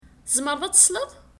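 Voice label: Kabyle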